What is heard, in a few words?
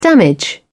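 "damage", British English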